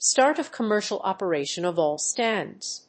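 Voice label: "start of commercial operation of all stands", English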